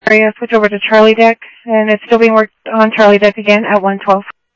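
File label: police department, scanner audio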